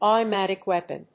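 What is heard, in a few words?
"automatic weapon", English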